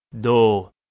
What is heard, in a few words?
"doo", Balochi